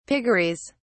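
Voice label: "piggeries", British English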